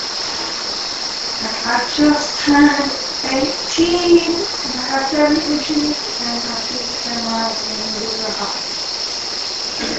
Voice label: Unexplained Female Voice; 2nd Bed Room; I just turned 18; AMPLIFIED